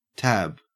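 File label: "tab", Australian English; pronunciations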